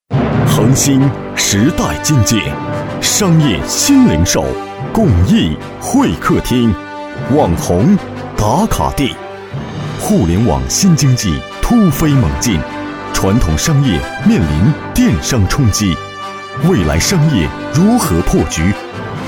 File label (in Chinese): 男 专题 激情; 恒星